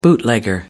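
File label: "bootlegger", British English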